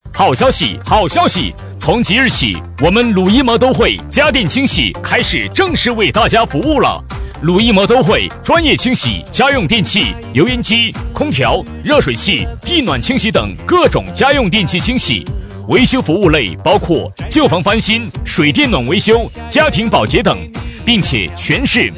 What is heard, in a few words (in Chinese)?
男声; 么都会家政